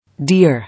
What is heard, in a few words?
"Deer", English